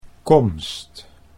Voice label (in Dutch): "komst", Dutch